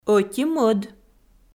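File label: "ӧти-мӧд" Komi, female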